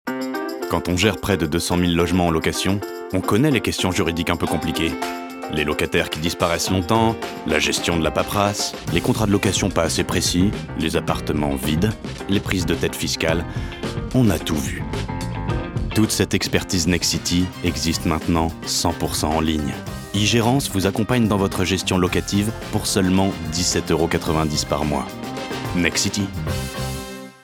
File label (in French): Publicité; Nexity